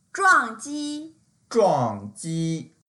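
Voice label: "zhuang4 ji1", Mandarin Chinese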